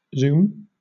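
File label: "zoom", British English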